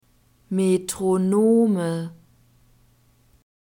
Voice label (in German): "metronome", German